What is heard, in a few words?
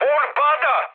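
Serbian aircraft destroyed mood high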